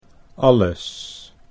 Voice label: "alles", Dutch

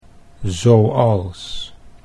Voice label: "zoals", Dutch

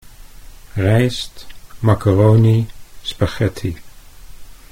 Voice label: "rijst", Dutch

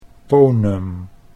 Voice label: "ponum", Dutch

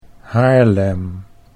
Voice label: "Haarlem", Dutch

